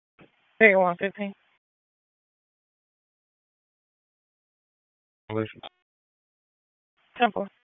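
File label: Raleigh Police Southwest District Dispatch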